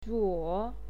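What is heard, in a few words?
"zhuo3", Mandarin Chinese syllable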